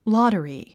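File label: "lottery", English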